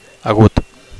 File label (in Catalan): "agut", Catalan